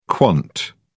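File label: "quant", English, British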